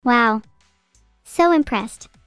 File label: evil wow so impressed